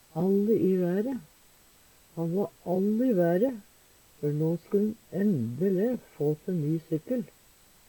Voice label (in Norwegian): all i være, Numedalsmål; Han va all i være før no skull`n endele få se ny sykkel.